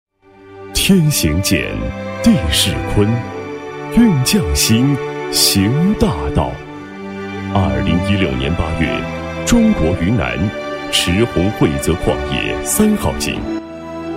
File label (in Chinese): 男 企业; 中煤第一建设有限公司